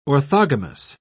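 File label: "orthogamous", British English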